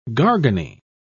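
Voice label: "garganey", British English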